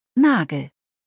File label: "Nagel", deutsch nachnamen